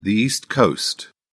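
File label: "east coast", British English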